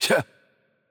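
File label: Romanian AttackLight; bloom minigame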